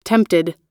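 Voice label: "tempted", US English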